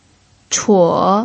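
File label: "chuo" third tone, Mandarin Chinese pinyin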